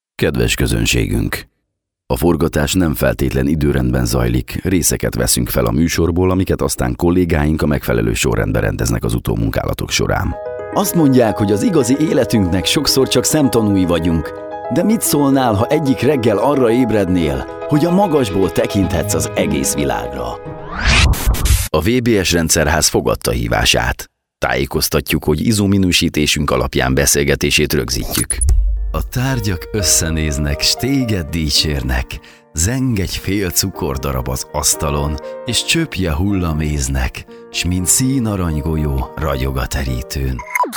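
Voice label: Hungarian male; lektoring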